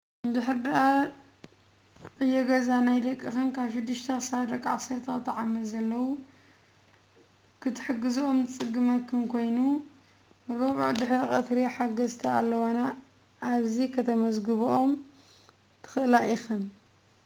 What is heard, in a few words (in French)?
audio tigrinya